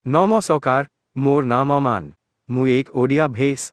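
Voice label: Indian Odia; iOS 26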